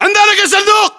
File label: landmark near crate, battle chatter, english